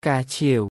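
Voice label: "កាឆៀវ", Khmer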